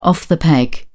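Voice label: "off the peg", British English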